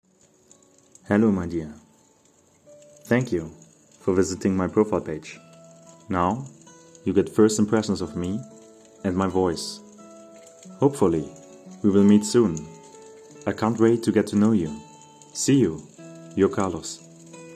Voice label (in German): Stimmprobe Englisch